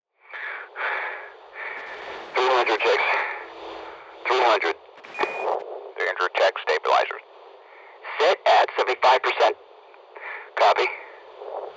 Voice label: Radio Rocket Launch American; NASA; noise creations